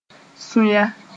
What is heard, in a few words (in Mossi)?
"sũya", Moore; hearts